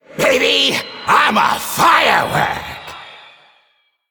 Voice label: Male Elemental